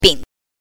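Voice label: "bin", Mandarin Chinese pinyin, neutral tone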